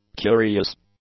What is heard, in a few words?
"curious", English; adjectives